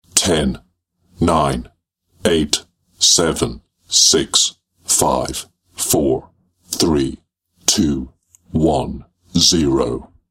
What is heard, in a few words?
Intense Drama Male Countdown; Combat Voice Sound Effects